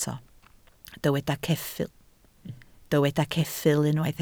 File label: "ceffyl", Welsh